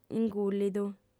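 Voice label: "ingùllidu", Sardinian, female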